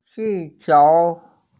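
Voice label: "qi4qiu2", Mandarin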